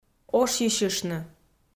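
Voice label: "ошйысьыштны", Komi-Zyrian, female; audio dict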